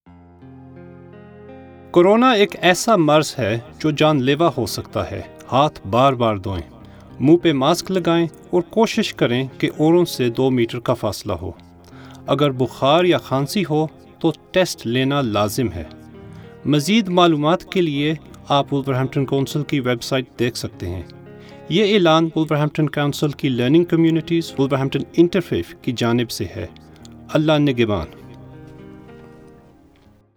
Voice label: Urdu